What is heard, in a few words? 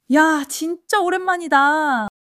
excitement